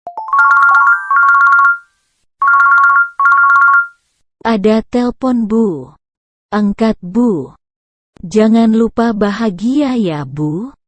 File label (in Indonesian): nada dering; ada telfon bu